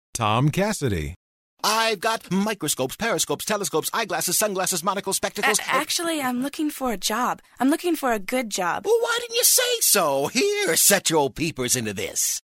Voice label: NERD